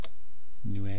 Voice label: "nwe", East Cree syllabics

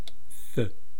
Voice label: "thu", east cree syllabics

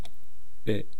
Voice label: "pi", East Cree syllabics

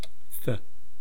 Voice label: "tha", East Cree syllabics

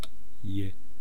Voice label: "yi", east cree syllabics

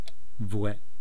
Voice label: "vwe", East Cree syllabics; language

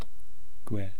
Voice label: "kwe", East Cree syllabics

